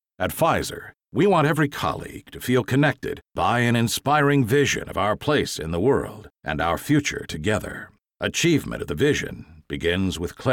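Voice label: Corporate Orientation Focused Intense Authoritative, American Male Voice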